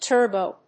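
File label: "turbo-", English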